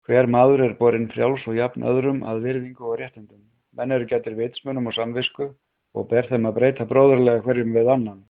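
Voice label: Icelandic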